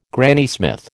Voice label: "Granny Smith", American